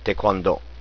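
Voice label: "taekwondo", spoken word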